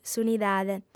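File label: "s'unidade", lingua sarda, female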